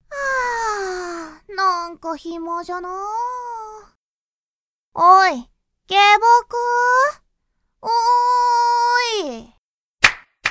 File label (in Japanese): バイノーラル導入